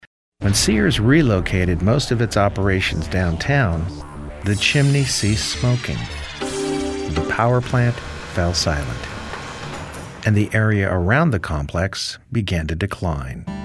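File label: Narration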